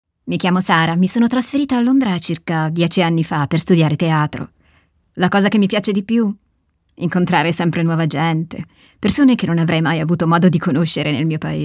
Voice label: italian female narration